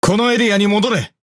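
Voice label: Speech Quick Commands Ally Point, Japanese; World Of Warships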